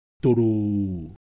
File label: Ewe Shape, ideophones